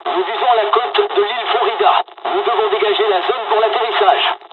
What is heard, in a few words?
dialog, dialogs french; War Thunder